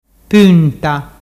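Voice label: "punta", Ligurian dialect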